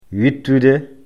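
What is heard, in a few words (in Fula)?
"wittude", Fula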